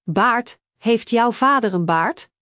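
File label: "baard", Dutch; Spelling Gr4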